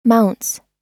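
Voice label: "mounts", American English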